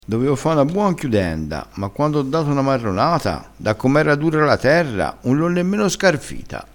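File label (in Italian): "marronata", dialettale crespinese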